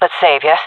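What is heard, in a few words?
request eco, female voice; player vo jungle fem; Counter Strike Model Pack; CS:GO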